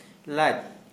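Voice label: Santali male pregnant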